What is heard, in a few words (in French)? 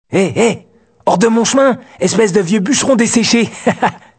Villageois vieux bucheron